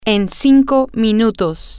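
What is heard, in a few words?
in five minutes, Spanish, female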